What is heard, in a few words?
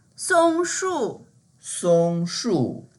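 "song1shu4", Mandarin Chinese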